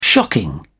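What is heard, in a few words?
"shocking", British English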